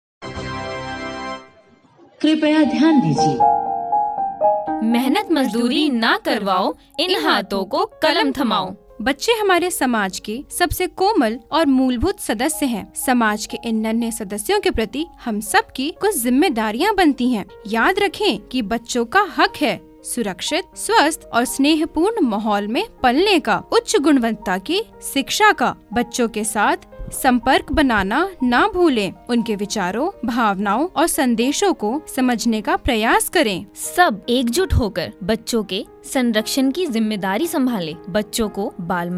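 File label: public announcement child protection; radio4child awards 2024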